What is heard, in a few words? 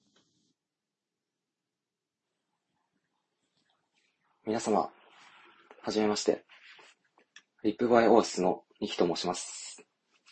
voice, therapist